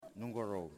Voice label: "Ten", Yimas

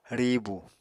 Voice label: "riibu", Apatani

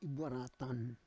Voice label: "ibuaratan", Roon

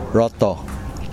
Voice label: "west", Kosraean

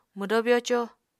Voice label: "mwdó byoco", Apatani

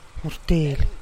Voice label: "gurtilih", three centavos

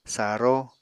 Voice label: "saró", Apatani

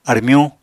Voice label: "armyuñ", Apatani

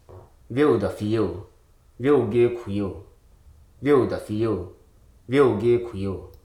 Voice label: "impress", Hruso

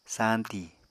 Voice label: "sañti", Apatani

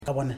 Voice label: "head", Ipili

